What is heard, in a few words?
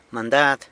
"Mandad", San Lucas Quiavini; Going on an errand.